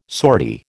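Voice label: "sortie", American English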